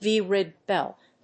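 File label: "V-RIBBED BELT", English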